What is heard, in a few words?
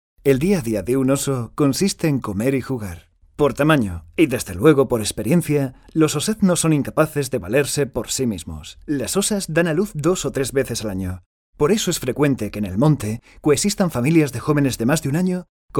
locutor spanish voiceover; Business voicemail